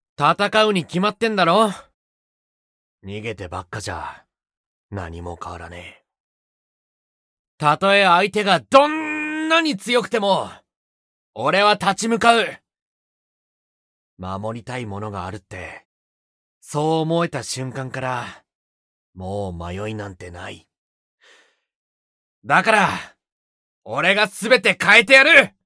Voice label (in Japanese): 熱血